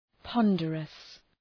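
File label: "ponderous", English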